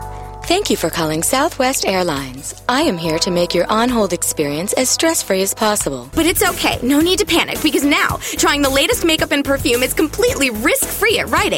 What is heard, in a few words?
Commercial, Female voices